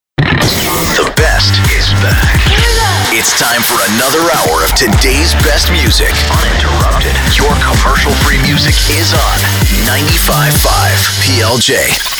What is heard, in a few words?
radio; imaging